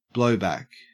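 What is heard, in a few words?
"blowback", English, Australian accent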